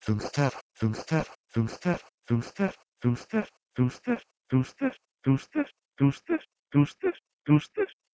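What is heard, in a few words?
Proto-Indo-European dhugh2ter to Armenian duster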